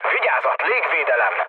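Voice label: Hungarian damaged aa mood low, speech; War Thunder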